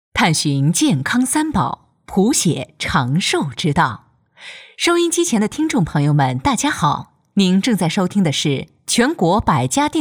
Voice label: 串词医药讲座

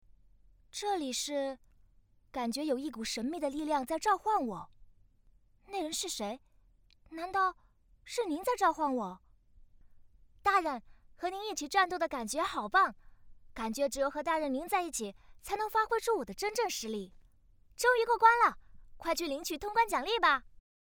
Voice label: 游戏角色 女性试音

